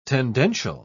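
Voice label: "tendential", British English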